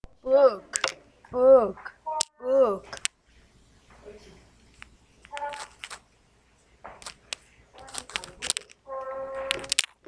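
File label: "book", spoken word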